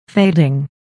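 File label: "fading", English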